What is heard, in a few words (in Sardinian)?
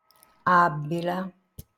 "Àbbila", sardu